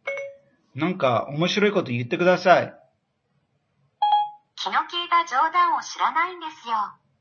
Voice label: Omosiroi Koto, Japanese; Practice Speaking With Siri